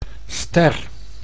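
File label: "ster", Dutch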